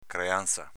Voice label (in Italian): "creanza", dialetto veneto; creànza s.f. educazione